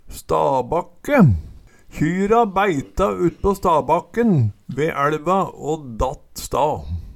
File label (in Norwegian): stabakke, Numedalsmål